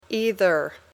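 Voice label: "either", English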